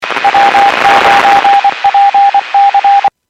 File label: Skywarn 146.67; Stevens Point